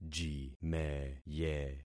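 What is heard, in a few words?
"jimeye", concatenated syllables; Affixing experiment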